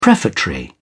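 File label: "prefatory", English, British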